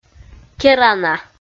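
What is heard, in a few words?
"керана", Komi, female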